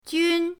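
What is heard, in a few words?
"jun", Mandarin pinyin, first tone; pinyindct-open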